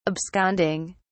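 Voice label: "absconding", English, British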